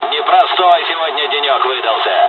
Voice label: dialogs russian; War Thunder